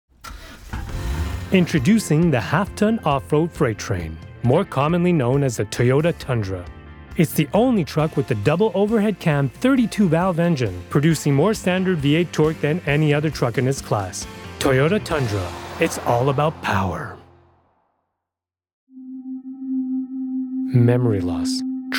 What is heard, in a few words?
voice eng